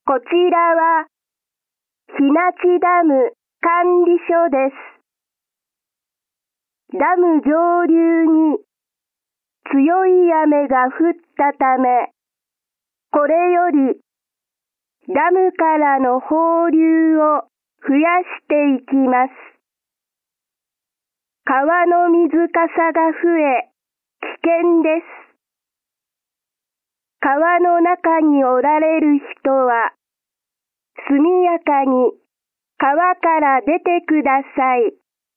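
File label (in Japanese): 雨が降り始めてゲート放流を開始する時の警報, 音声放送; 比奈知ダム管理所版; kizugawa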